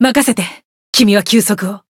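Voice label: chant, Japanese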